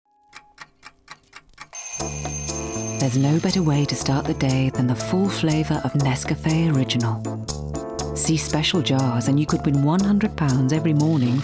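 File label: commercials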